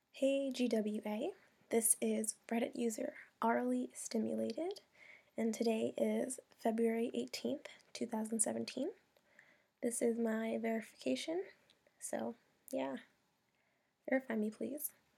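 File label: Female verification; GWA Verification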